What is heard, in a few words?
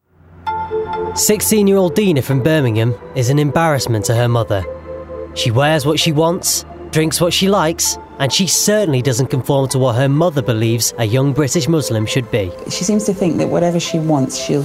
DOCUMENTARY; Strict Parents